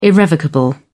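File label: "irrevocable", British English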